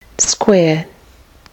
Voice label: "square", Kiwi English